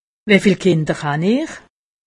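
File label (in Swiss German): "kinder", Alsatian; medecine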